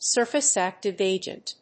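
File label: "surface-active agent", English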